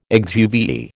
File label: "exuviae", American